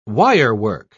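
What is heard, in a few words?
"wirework", English, British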